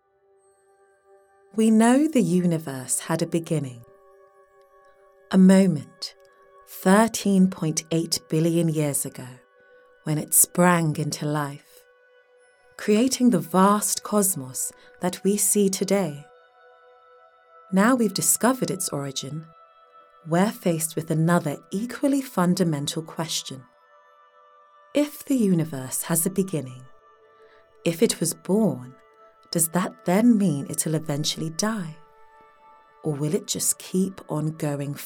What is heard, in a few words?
Documentary; Space